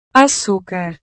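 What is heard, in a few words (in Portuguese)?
"acucar", Portuguese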